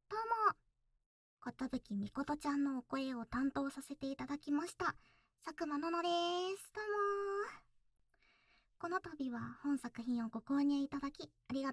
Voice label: フリートーク, ASMR

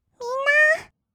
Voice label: みんなー, kawaii voice; ASMR; 二人称

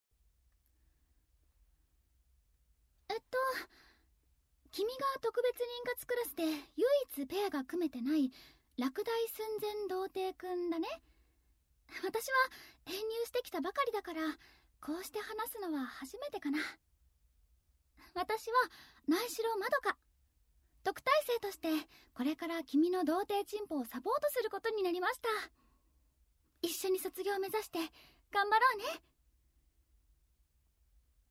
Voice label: SE無し, ASMR; SEカット（効果音無し版）

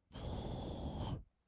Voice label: "じーっ", kawaii voice; 1200 kawaii voice!!; 色々なワンショット

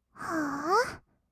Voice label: "はー？", kawaii voice; 1200 kawaii voice!!; 色々なワンショット

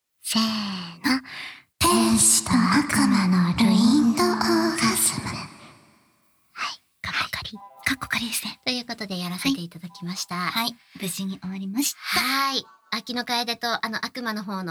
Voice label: おまけフリートーク, ASMR

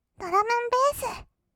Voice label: ドラムンベース, kawaii voice; ASMR; 音楽ジャンル

